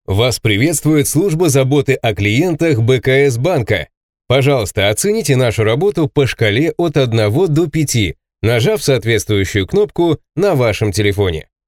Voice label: ivr